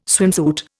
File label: "swimsuit", Indian